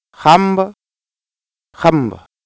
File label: xamb contrast, Wolof